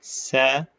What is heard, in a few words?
short voyels arabic alphabet